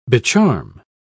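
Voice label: "becharm", British English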